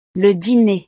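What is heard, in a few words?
"le diner", French; snacks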